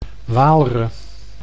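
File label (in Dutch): "Waalre", Dutch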